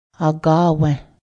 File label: belong, Cayuga